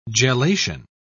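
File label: "gelation", British English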